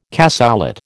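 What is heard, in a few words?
"cassoulet", American English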